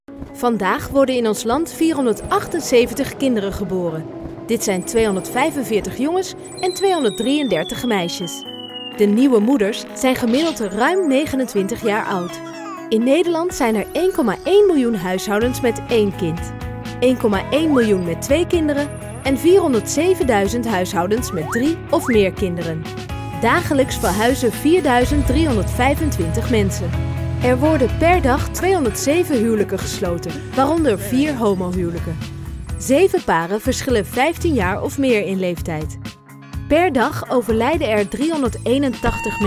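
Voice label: female, Dutch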